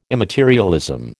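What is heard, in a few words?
"immaterialism", American English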